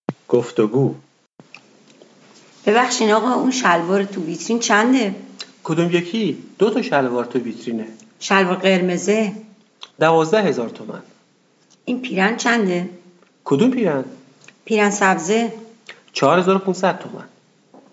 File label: Dialog, persian